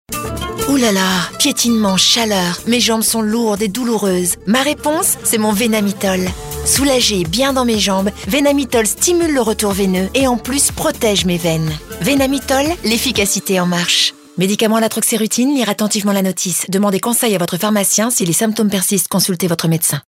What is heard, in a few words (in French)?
Complice féminine; Veinamitol